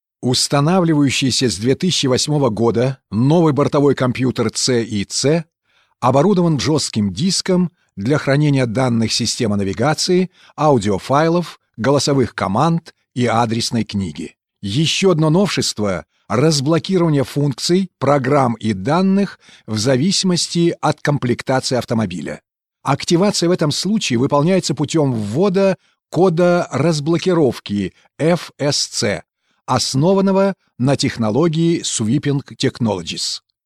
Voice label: elearning